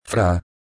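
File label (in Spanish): "fra", Spanish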